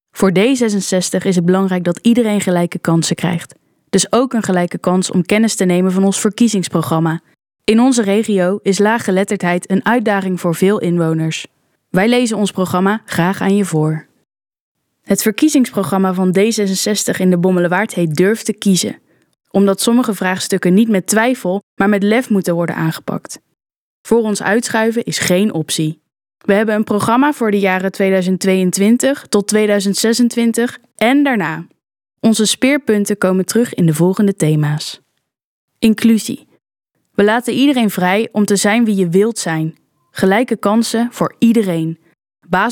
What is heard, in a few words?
Voice-Over